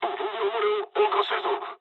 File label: tank damaged mood med, speech jp; War Thunder